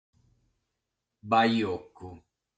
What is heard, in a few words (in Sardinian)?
"Bajocu", sardu